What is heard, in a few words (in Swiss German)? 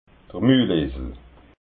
"mulesel", alsace